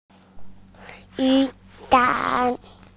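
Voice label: "umbrella", Mandarin Chinese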